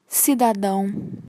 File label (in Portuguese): "cidadão", Portuguese